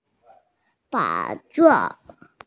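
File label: "bai2se4", Mandarin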